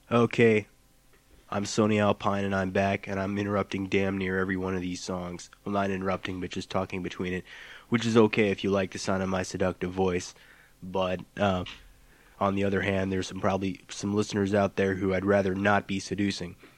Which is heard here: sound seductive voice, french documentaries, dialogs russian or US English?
sound seductive voice